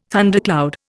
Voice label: "thundercloud", Indian